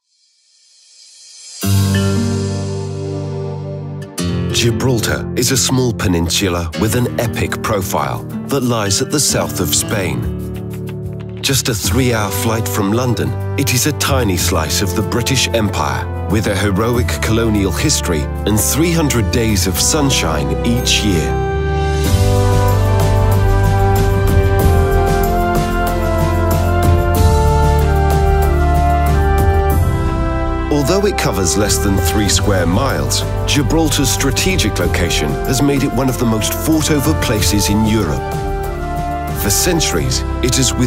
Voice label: Male 35 45 years Medium Low

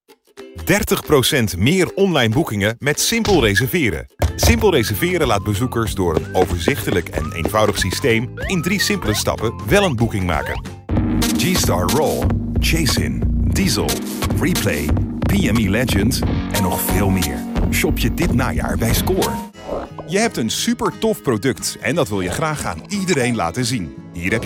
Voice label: Male 35 45 years Low, dutch netherlands

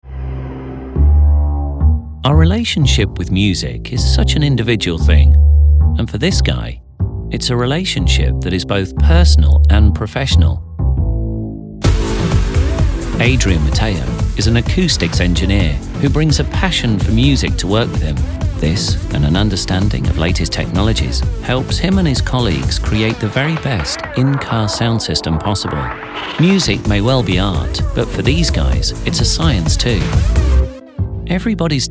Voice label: Male 35-45 years Versatile

